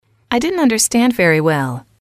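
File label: "I didnt understand very well", English, female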